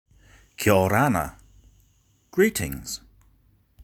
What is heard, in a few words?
Greetings, Cook Islands Language; Cook Islands Language Week; CILW 2022